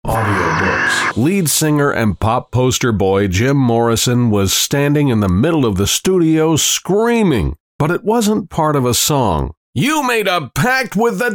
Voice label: Audiobook

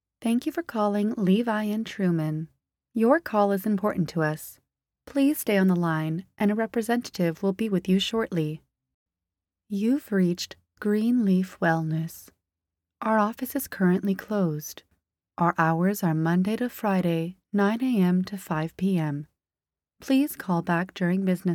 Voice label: EN Phone Operator

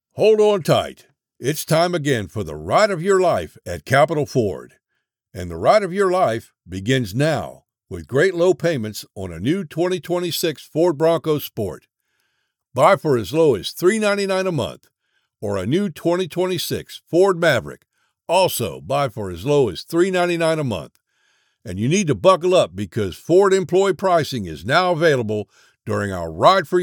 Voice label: commercial read; Santa Fe Ford

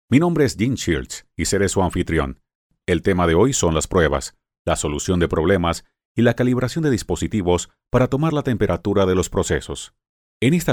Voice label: E-learning

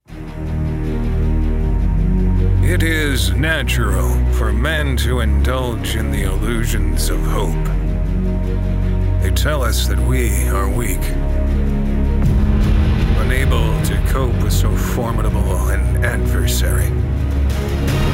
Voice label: Sports Promos And Spots

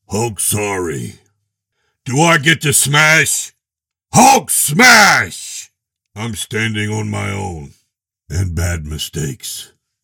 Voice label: Voice of Hulk; Avengers

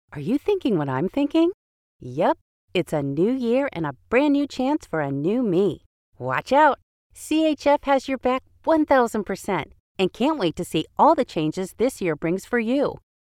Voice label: PROMO

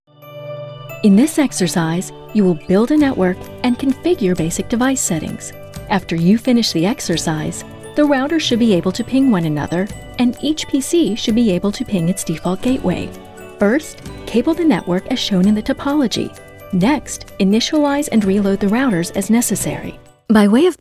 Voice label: eLearning

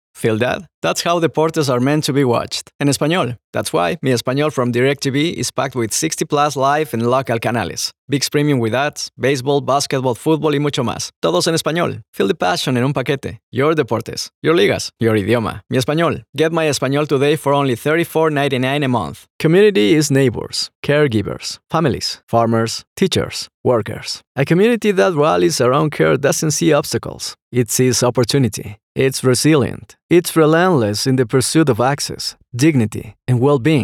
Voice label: English

